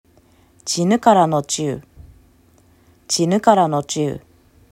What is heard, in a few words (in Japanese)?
"チヌカㇻノチウ", アイヌ語; cinukarnociw; アイヌ語クイズ; チ ci・我ら ヌカㇻ nukar・を見る ノチウ nociw・星